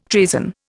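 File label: "treason", Indian English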